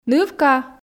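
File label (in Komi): "нывка", Komi, female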